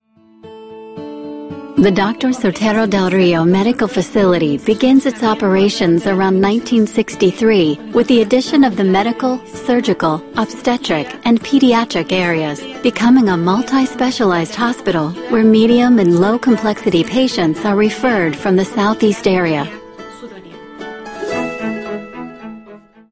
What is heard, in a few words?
Documentary Style